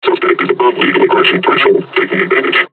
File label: taunt, combine charger; Half Life Alyx